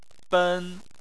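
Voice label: "ben" Chinese, first tone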